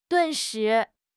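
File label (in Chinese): "顿时", Mandarin Chinese; HSK6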